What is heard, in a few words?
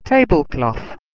"tablecloth", English